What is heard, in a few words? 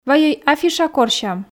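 "вайӧй афиша корсям", Komi, female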